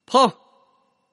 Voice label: peng, man; tianjin